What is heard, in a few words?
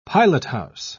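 "pilothouse", British English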